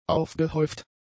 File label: "aufgehäuft", German; adjectives